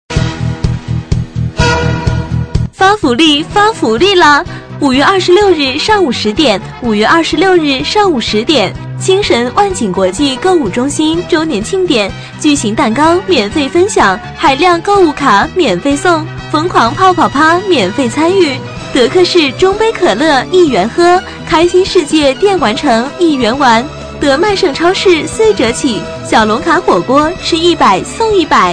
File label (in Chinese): 女 促销 发福利发福利啦, 促销女配